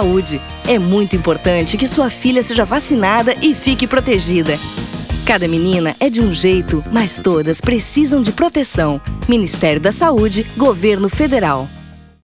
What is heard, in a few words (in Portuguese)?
spot hpv pais; ministerio da saude